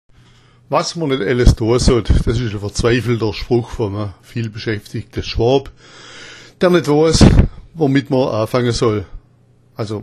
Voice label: doa sott, schwaebisch; Was mr net älles doa sott; Das ist der verzweifelte Spruch eines vielbeschäftigten Schwaben, der nicht weiß, womit er anfangen soll

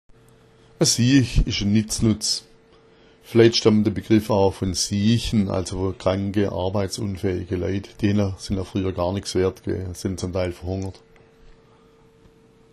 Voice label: "siach", schwaebisch

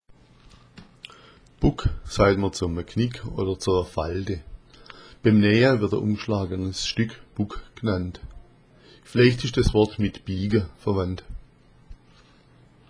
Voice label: buck, schwaebisch

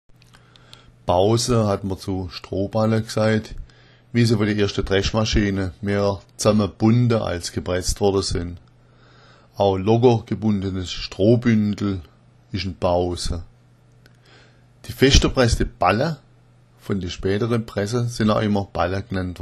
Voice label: bausa, schwaebisch

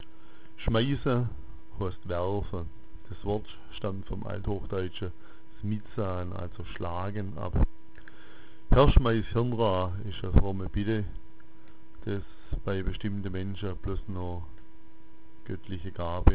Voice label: "schmeissa", schwaebisch

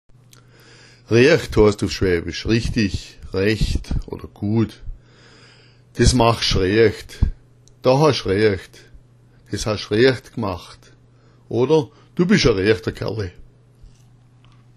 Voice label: "reacht", schwaebisch; Reacht heißt richtig, recht, gut

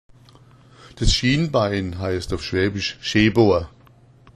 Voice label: scheeboa, schwaebisch

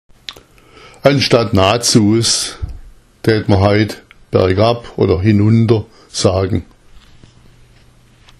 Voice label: nazuas, schwaebisch; Anstelle na’zuas würde man heute bergab, hinunter, sagen.

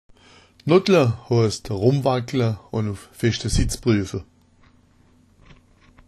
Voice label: "nottla", schwaebisch

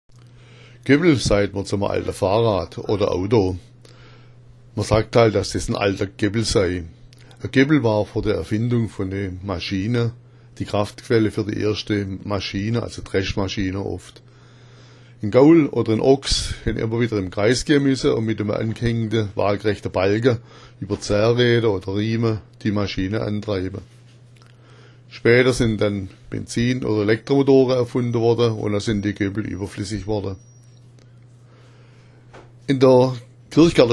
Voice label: goeppel, schwaebisch

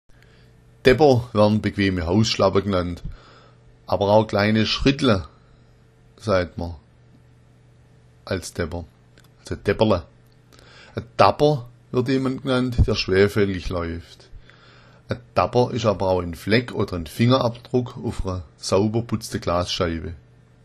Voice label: daepper, schwaebisch

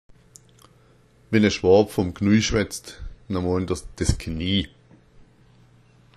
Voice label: "knui", schwaebisch

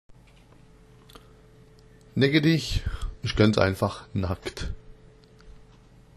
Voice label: neckedich, schwaebisch

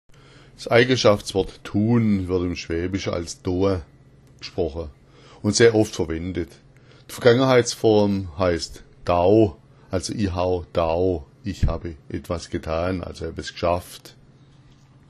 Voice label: doa, schwaebisch

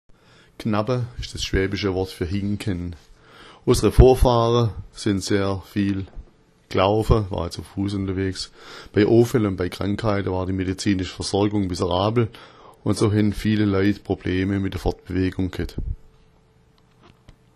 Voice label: knappa, schwaebisch

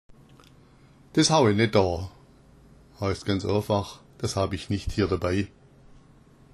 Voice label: haue net, schwaebisch; des hau’e net dô; des hau'e net dô heißt ganz einfach: Das habe ich nicht hier dabei.

